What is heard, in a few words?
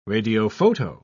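"radiophoto", British English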